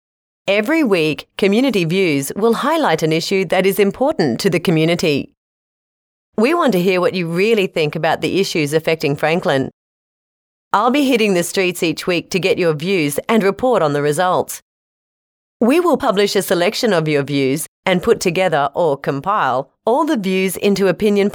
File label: childrens audio book voice over